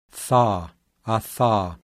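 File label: voiceless dental fricative; IPA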